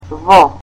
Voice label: "in", Macedonian